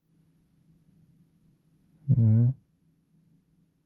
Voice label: Voice message